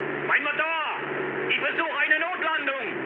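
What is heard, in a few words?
German damaged engine mood low, speech; War Thunder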